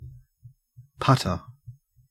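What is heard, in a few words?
"putter", Australian English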